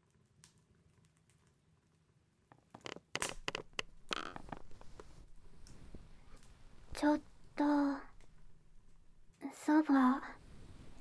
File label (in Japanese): ソファーで添い寝・イチャイチャ(安眠)【BGMなし】, ASMR